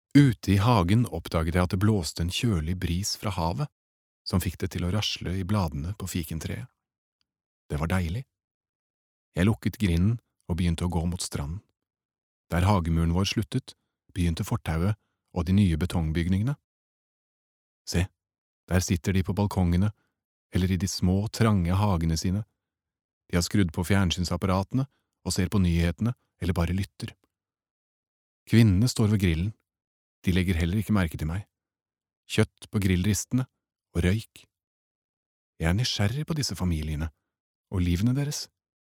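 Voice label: Norwegian audio book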